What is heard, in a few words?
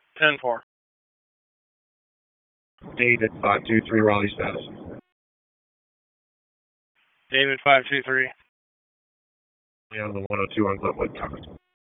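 Raleigh Police Southeast District Dispatch; wake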